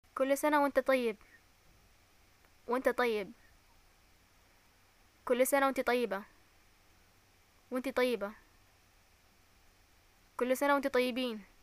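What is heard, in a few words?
greeting eid and response, Yemeni Arabic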